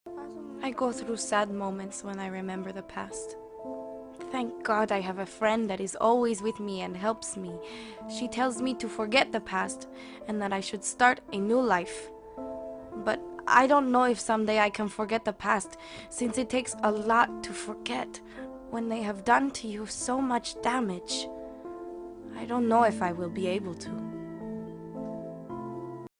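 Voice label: Documentary Hispanic Accent English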